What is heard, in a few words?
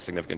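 "significant", American English; sɪgnɪfːɣənʔ; significant (AE)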